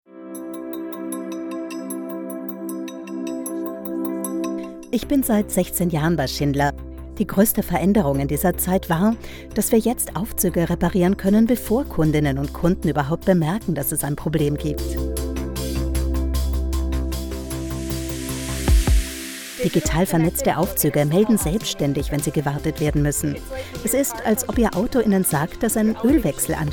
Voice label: Sprecherin Imagefilm; Schindler

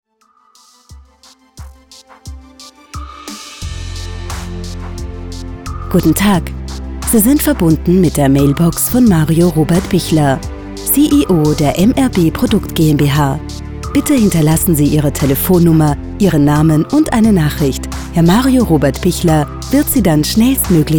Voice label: mobilbox ansagen; Professionelle Mailboxansage

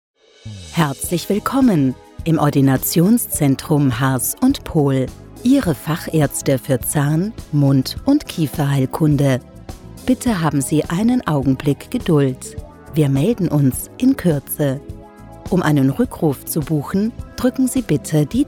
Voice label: ansagetext anrufbeantworter zahnarztpraxis; Beispiel 18: Ansagetext Anrufbeantworter Zahnarztpraxis

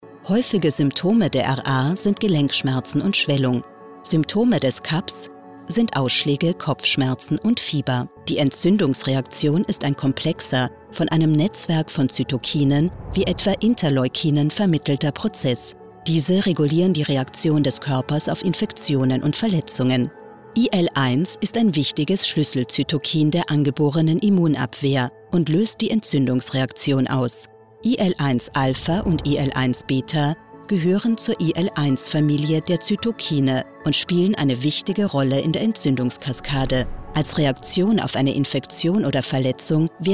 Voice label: Medizinischer Fachfilm German

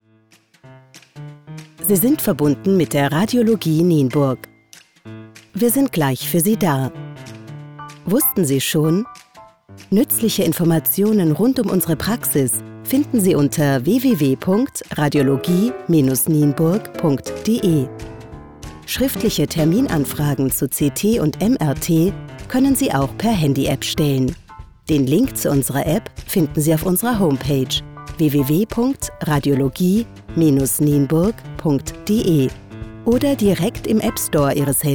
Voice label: meldetext; Meldetext Radiologie

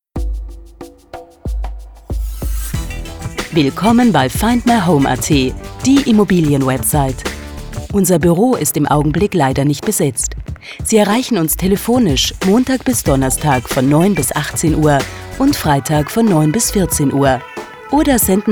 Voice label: telefonansage nachtschaltung

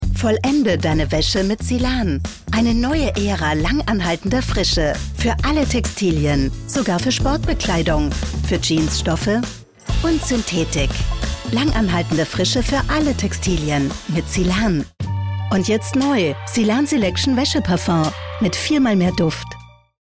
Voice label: Werbung AT; Silan

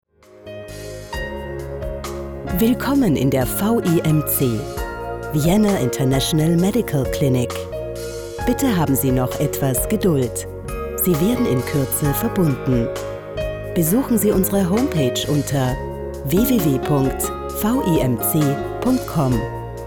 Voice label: sprecherin text im warten; German on-hold script

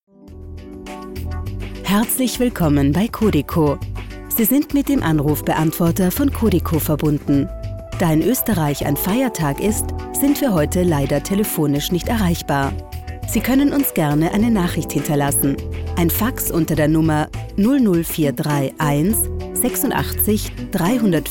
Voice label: telefonansage feiertagsansage

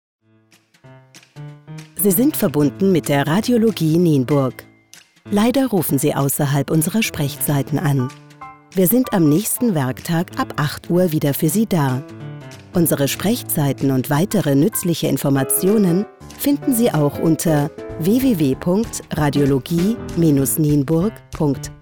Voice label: nachtansage; German after hours "off duty"

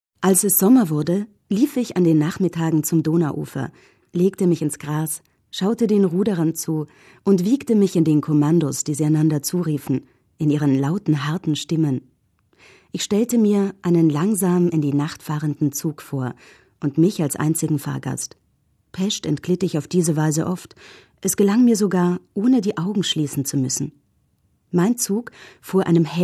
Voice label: radio erzaehlstimme kurz

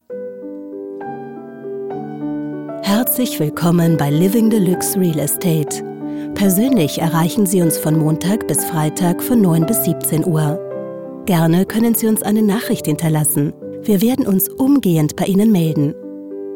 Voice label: telefonansage nachtschaltung

